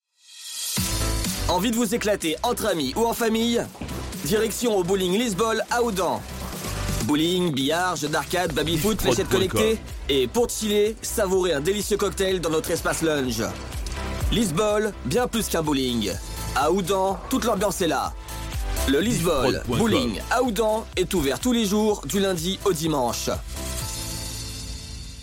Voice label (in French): Spot Bowling, publicite; Lys Bowl Houdan